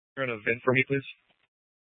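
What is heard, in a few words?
Tempe police department, scanner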